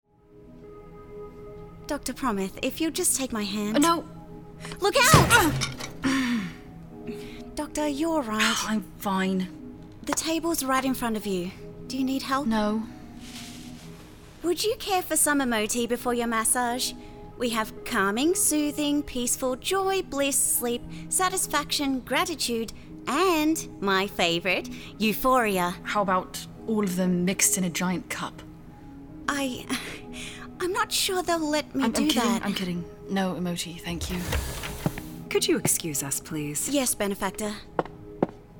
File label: Assistant AU Young Helpful Sweet, australian voice over; Earth Eclipsed; accents characters age voice samples